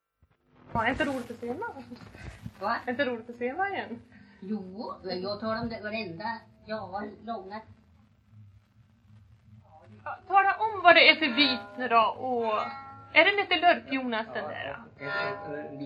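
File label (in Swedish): Hedesunda Gästrikland, svenska sagor dialekter; Folkminnen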